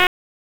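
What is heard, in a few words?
Raleigh Police Northeast District Tactical; wake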